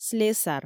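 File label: "слесар", Komi, female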